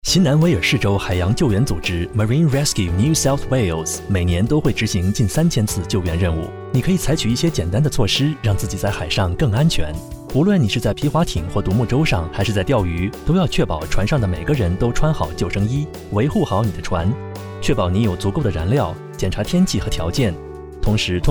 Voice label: Safety Mandarin music